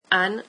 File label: "donkey", French